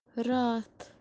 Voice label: "Raath" Night Yesterday, kashmiri